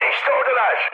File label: enemy detected, mood high, speech tur